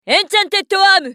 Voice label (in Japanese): ウォーロック女; エンチャンテッドアーム